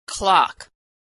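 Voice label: "clock", American English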